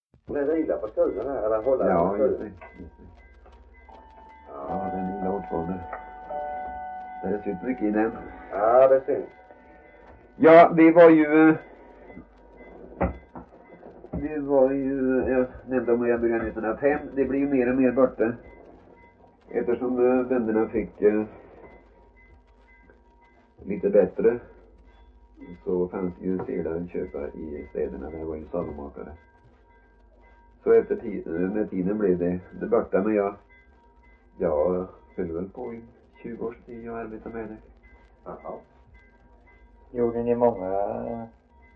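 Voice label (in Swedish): Värö Halland, Svenska sagor dialekter; Folkminnen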